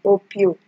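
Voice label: "opio", Nigerian Pidgin, female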